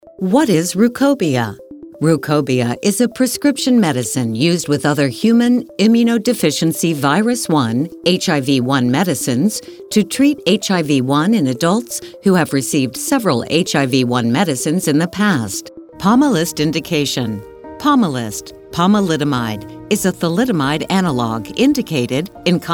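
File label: narration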